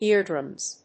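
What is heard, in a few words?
"ear drums", English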